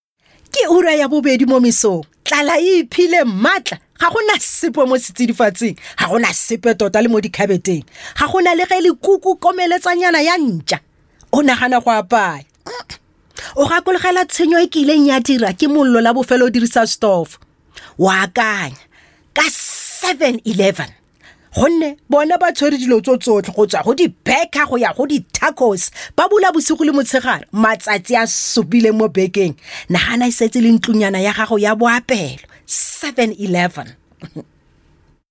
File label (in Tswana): Tswana Conversational